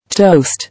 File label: "Toast", English